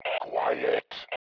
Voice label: QUIET; valve; ALIEN1

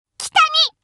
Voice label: chitchat, voice commands Anime Loli; svencoop

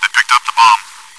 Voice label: chitchat; svencoop

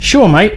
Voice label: Yes, male, voice commands; svencoop

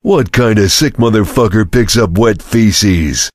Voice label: chitchat; svencoop; voice commands; Duke

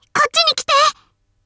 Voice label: follow me, voice commands Anime Loli; svencoop

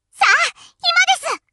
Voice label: run away, voice commands Anime Loli; svencoop

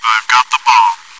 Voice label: chitchat, bot, voice commands; svencoop

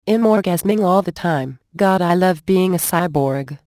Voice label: cyborg orgasming; outerspace

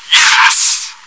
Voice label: cheer; svencoop

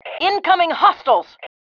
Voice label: incoming enemy, FEM

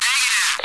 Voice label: radio no

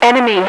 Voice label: "enemy", radio, female

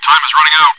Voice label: chitchat, bot, voice commands; svencoop

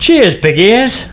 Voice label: Thanks, male; svencoop

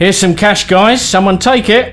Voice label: Drop Cash, voice commands, male; svencoop

